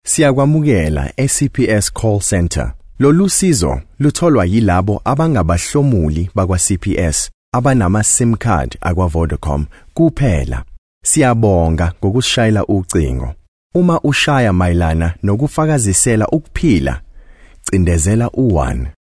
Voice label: Zulu Male